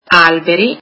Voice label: trees, italian